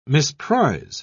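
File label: "misprize", British English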